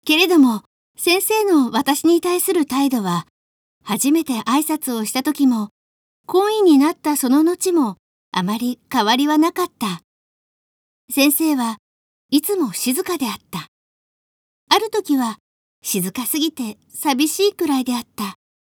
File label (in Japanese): girl